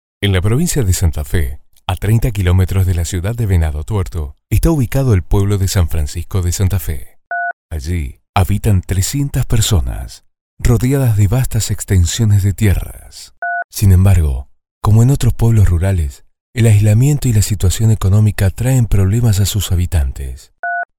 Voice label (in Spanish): narraciones